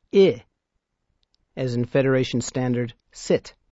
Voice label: Klingon sounds I, Letter I, as in sit; Note:Upper case!

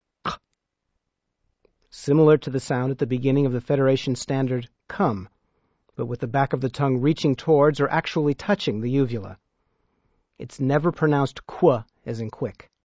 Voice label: Klingon sounds q, Letter q, as in come; Note:never upper case

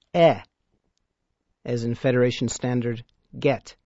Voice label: Klingon sounds e, Letter e, as in get

